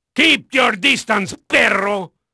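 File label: Spanish male, voice; Pirates Of The Caribbean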